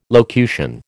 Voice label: "locution", American

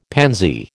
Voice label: "pansy", American

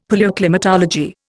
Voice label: "Palaeoclimatology", Indian; Dictionary

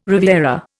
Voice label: "riviera", Indian

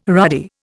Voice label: "ruddy", Indian

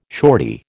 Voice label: "shortie", American

